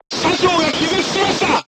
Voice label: voice message commander contused, crew tanks japanese